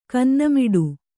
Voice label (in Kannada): "ಕನ್ನೆಮಾಡ", Kannada